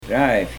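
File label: "drei", Pennsylvania Dutch; 3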